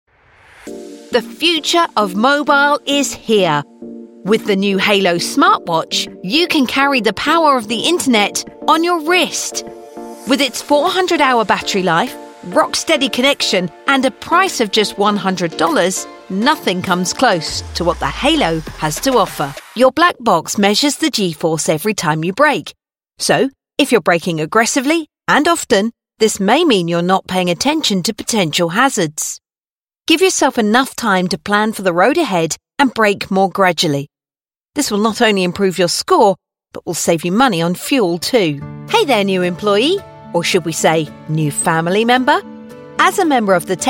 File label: English British corporate videos; professionelle britische Sprecherin